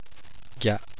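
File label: "gya", Japanese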